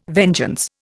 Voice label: "vengeance", Indian